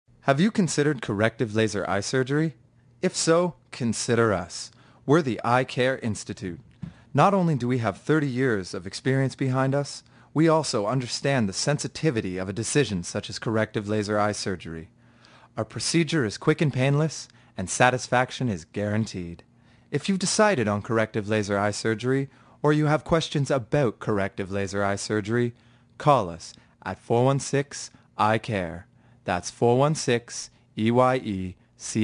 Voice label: natural voice commercial; Eyecare Institute